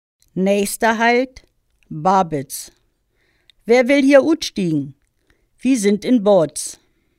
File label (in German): Busansage Babitz, plattdeutschen Ansagen